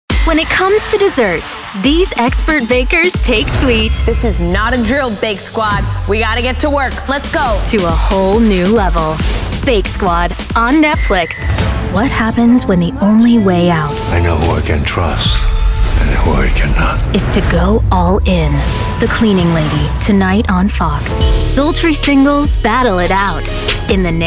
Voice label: TV Promo